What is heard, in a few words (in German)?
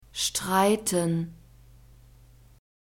"streiten", German; verbs present tense irregular